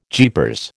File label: "jeepers", American